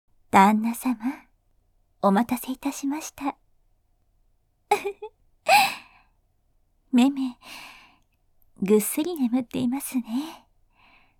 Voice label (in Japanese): サンプル（中音）